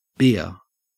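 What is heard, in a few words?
"beer", Australian English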